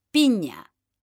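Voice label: "piña", Spanish, female; mekanta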